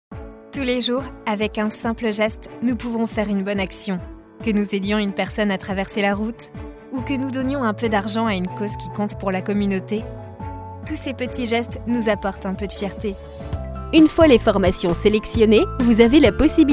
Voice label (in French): Medley Institutionnel Corporate